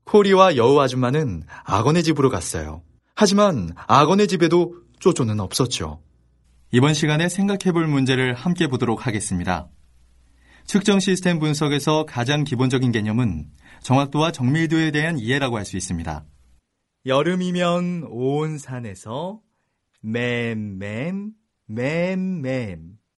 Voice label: Korean Male Voice Sample

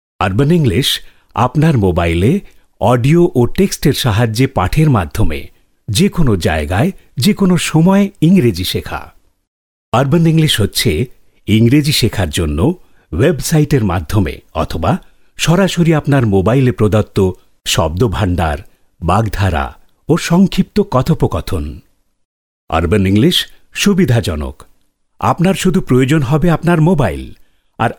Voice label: Bengali, India Male

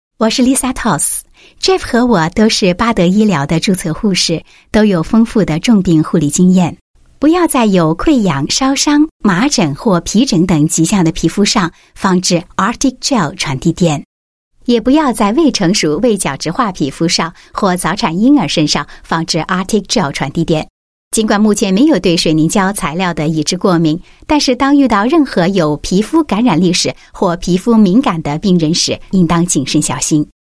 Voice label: Mandarin ELearning Product Info, China Female

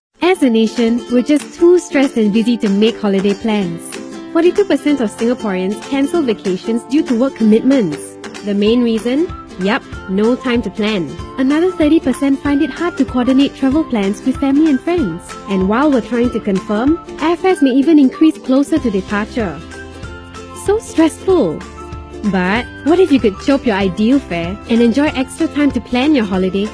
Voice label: Asian English Younger Commercial; Flyfree

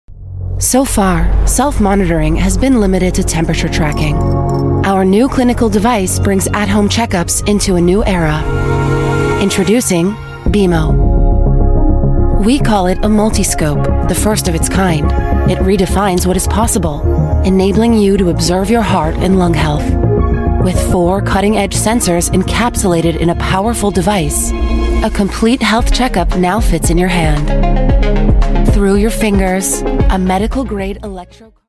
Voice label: US English; Beamo Multiscope

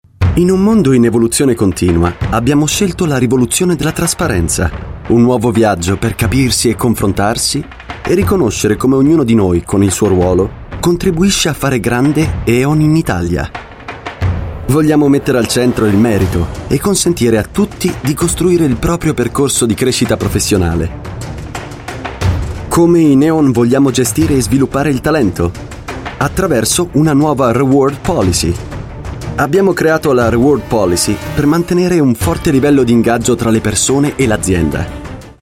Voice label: Italian

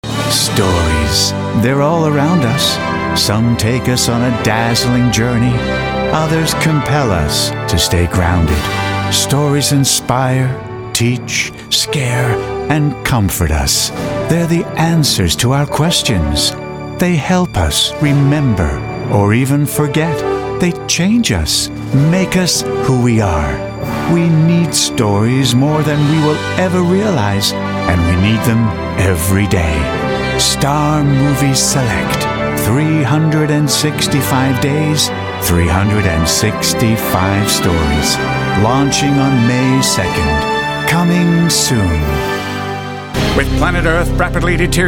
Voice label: US English Trailers

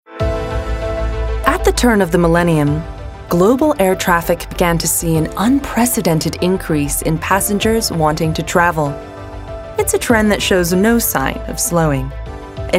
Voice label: Asian English Documentary

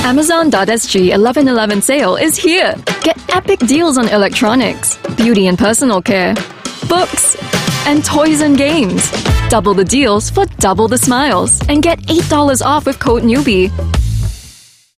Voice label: Asian English; Amazon SG 11-11

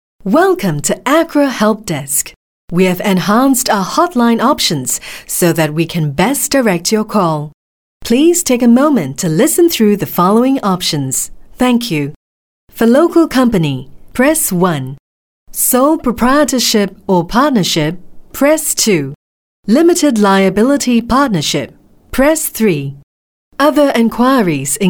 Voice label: Asian English IVR System; ACRA

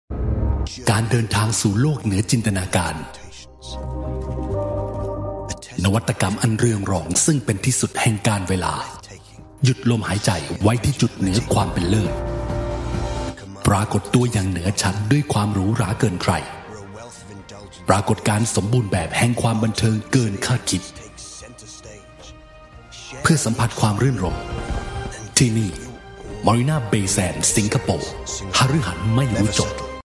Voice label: Thai Promo M; MBS

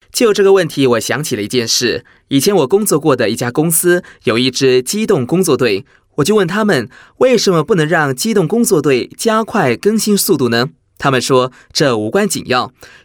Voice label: Mandarin, China male

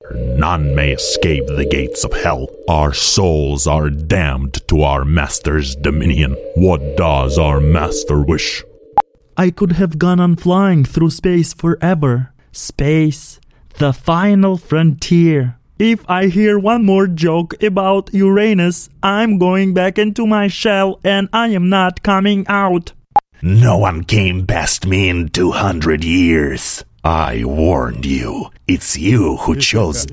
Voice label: Russian Animation and Video Games English, Male

